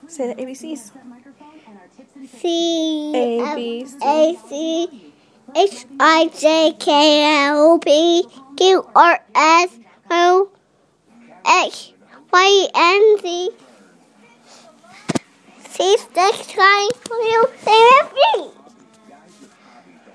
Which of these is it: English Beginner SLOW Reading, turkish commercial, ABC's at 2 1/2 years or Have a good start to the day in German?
ABC's at 2 1/2 years